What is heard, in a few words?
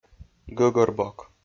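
"гӧгӧрбок", Komi, male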